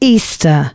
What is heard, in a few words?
announcements female